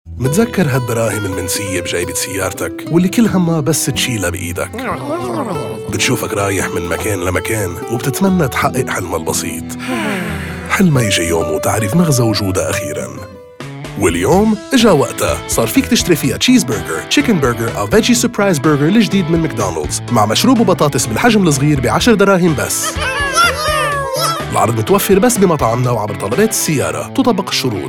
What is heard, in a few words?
Lebanese TVC, voiceover